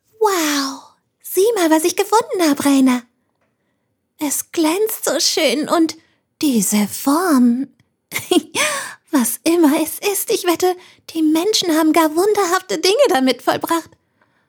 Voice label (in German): DE Synchron Meerjungfrau